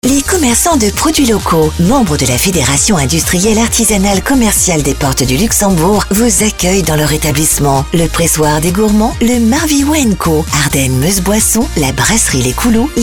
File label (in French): Spot; PORTES DU LUXEMBOURG; Fiac octobre 2024